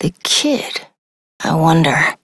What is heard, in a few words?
Adult Op, en; New War; Transference